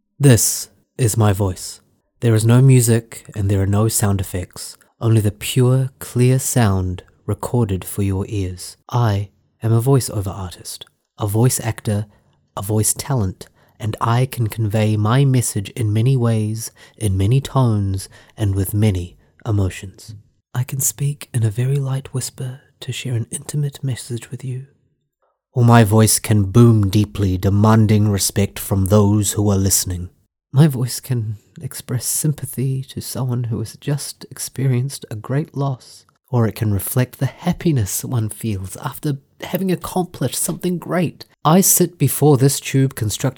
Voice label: New Zealand